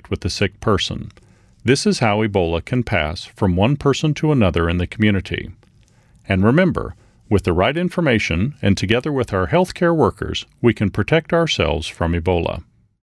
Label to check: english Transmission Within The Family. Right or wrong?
Right